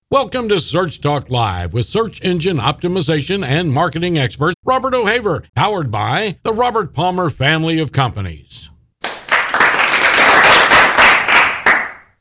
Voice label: search talk live intro no music no clapping